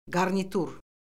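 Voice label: "qarnitur", Azerbaijani; Orfoqrafiya Lüğəti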